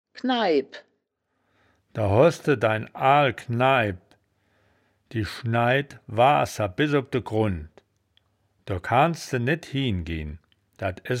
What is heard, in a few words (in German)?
"kneibp", wittlich